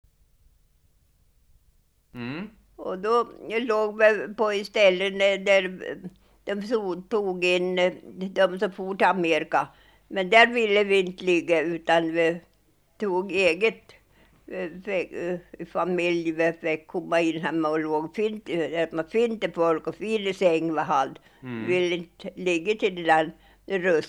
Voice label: Sundsjö, dialekter; Svenska sagor; Folkminnen

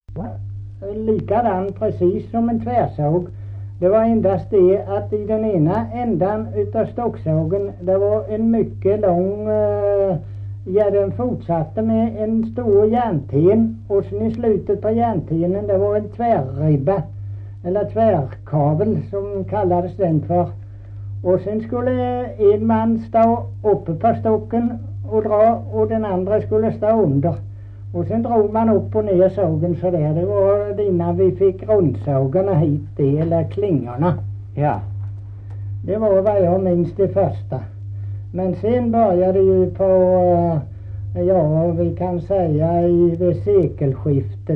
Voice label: Kristianopel BLEK, dialekter; Folkminnen

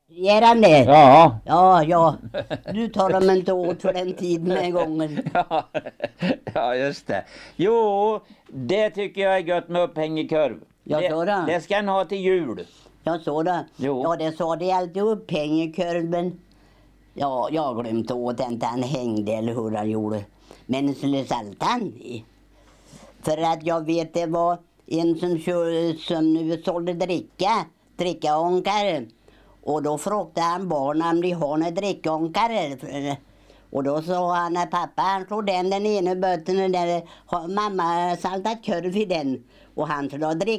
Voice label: Floby, dialekter; Svenska sagor; Folkminnen

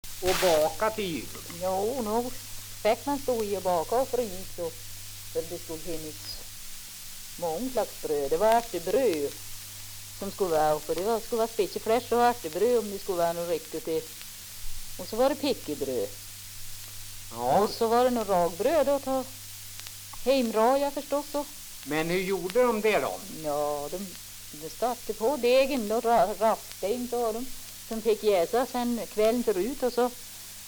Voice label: dialekter; Folkminnen

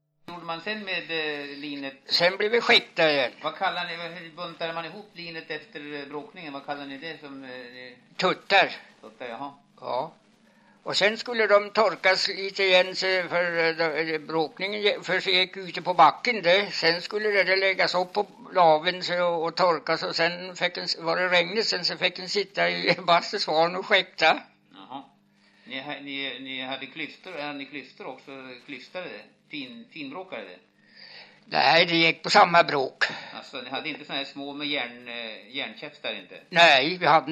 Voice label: dialekter; Folkminnen

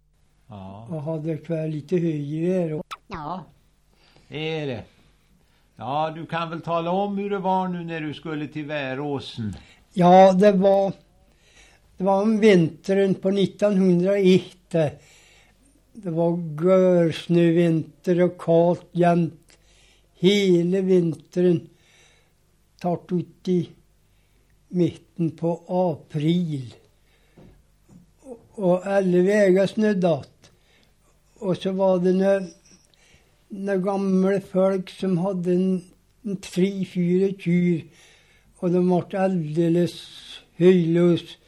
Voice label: Hede, dialekter; Svenska sagor; Folkminnen

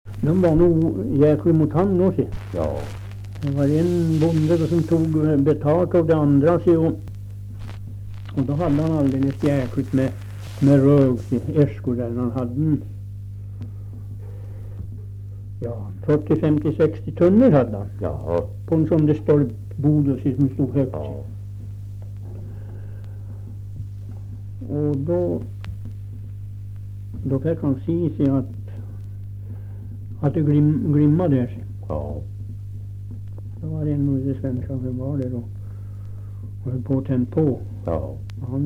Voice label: Österfärnebo, dialekter; Folkminnen; Svenska sagor

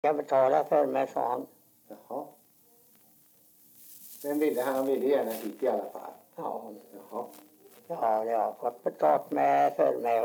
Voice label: dialekter; Folkminnen

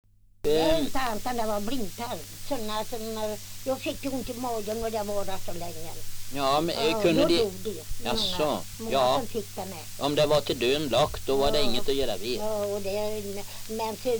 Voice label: dialekter; Folkminnen

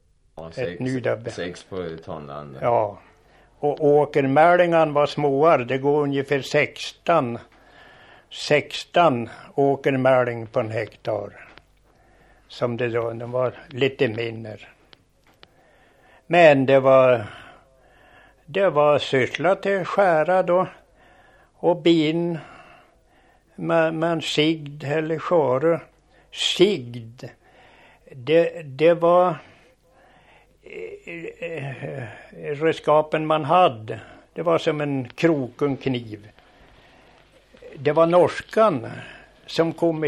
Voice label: Marieby, dialekter; Svenska sagor; Folkminnen

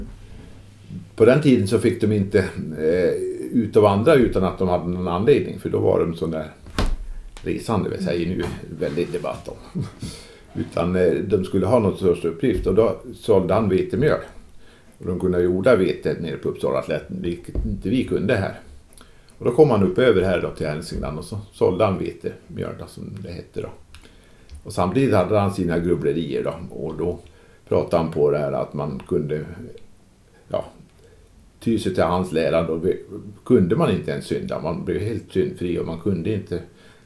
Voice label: Sa kallad NORMinformant Edsbyn, dialekter

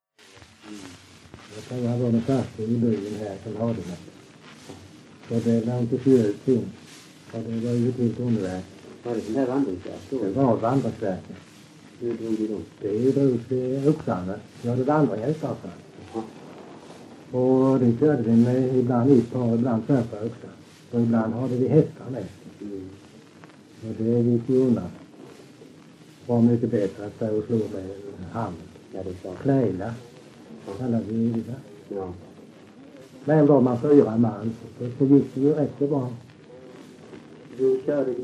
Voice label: Öljehult, dialekter; svenska sagor

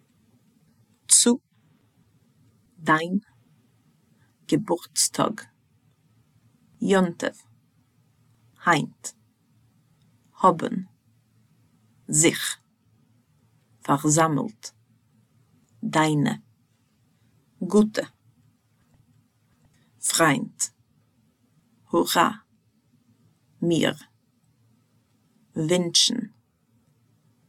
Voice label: Ordlista, Jiddish

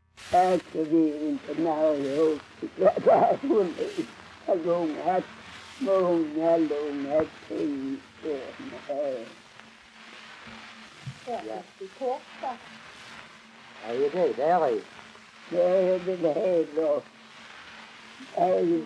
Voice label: Strövelstorp SK, dialekter; Folkminnen

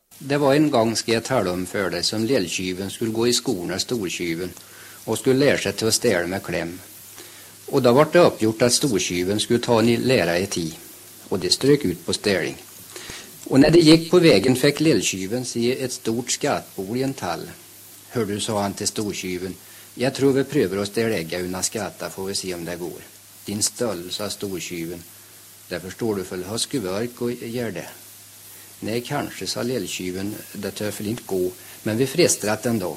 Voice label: Ostmark, dialekter

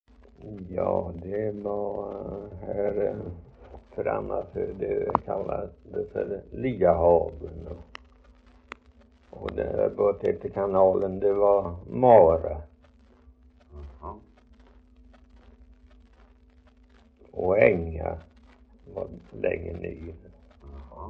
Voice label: Idala, dialekter; HALL

